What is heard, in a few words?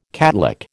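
"catlick", American English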